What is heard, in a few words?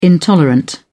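"intolerant", British English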